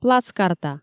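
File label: "плацкарта", Komi, female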